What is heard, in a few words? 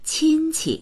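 "qin1qi", Mandarin Chinese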